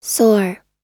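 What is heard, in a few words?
"soar", American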